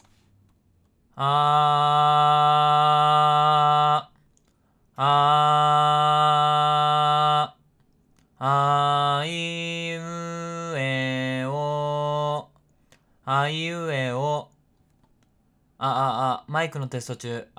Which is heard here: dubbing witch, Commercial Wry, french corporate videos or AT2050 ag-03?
AT2050 ag-03